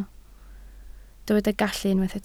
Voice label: "gallu", Welsh

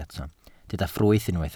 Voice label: "ffrwyth", Welsh

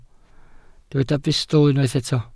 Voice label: "bustl", welsh

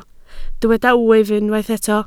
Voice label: "wyf", Welsh

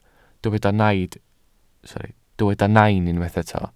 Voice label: "nain", Welsh

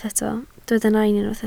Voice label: "nain", Welsh

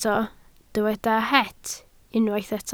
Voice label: "het", Welsh

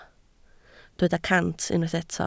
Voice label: "cant", Welsh

